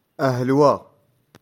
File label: "Ahalwagh", Touareg; Aujourdhui